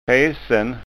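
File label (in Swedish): "fesen", framtidsskånska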